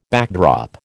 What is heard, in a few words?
"backdrop", American